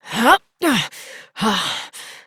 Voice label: Horse Dismount Adult, Dialog; Duviri